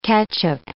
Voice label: "catch-up", English